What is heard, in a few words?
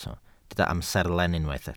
"amserlen", Welsh